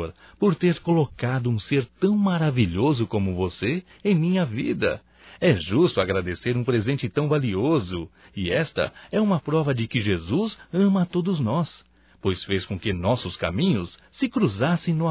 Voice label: Aniversário Irmã Evangélico Masculino; Aniversarios; Telemensagens 2017

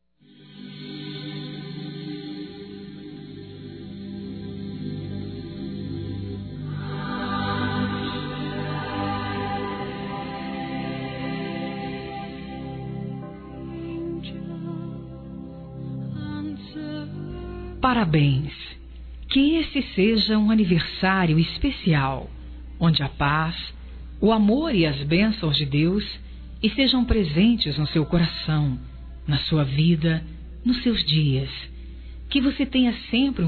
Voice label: ROMANTICO F; Aniversários; ANIV. Evangelico; Fem

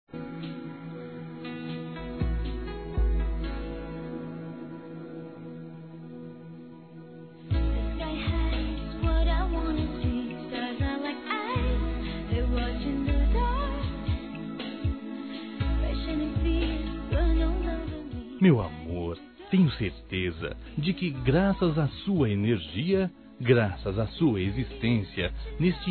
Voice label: BOA TARDE ROMANTICO-M

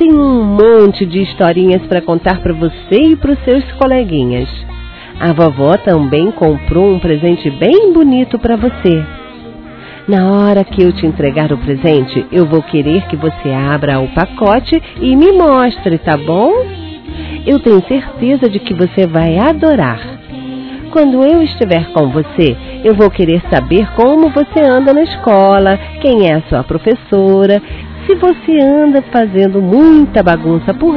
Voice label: Neto (a) Criança Feminino; Aniversário Infantil; Telemensagens

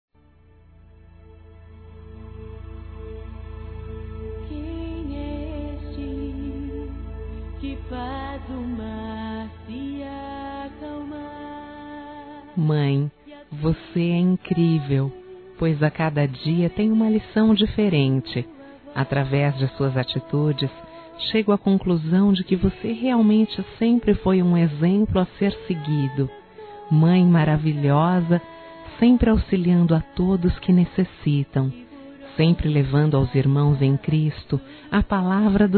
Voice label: Geral Feminino, Telemensagens; Telemensagens 2017

